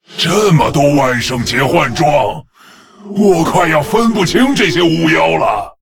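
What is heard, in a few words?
Male, Chinese; Lich; HAPPY HALLOWEEN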